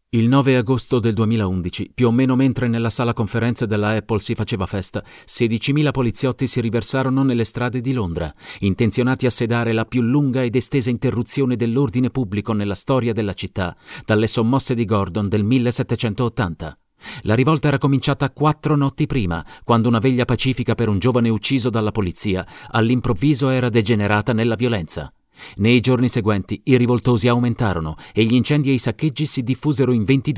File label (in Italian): lettura ritmata incalzante